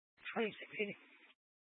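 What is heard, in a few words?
Sheriff Dispatch, Scanner audio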